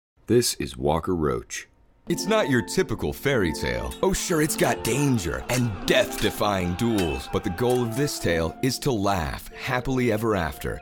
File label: trailer